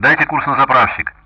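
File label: Speech Russian; DCS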